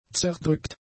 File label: "zerdrückt", German; adjectives